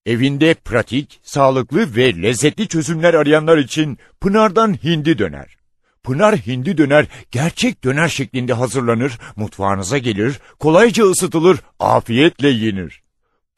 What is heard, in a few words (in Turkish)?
reklam